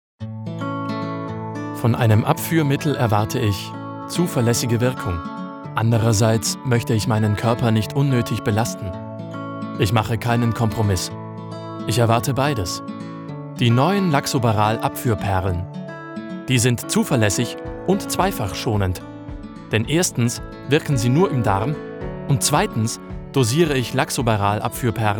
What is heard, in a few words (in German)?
Werbung; Laxoberal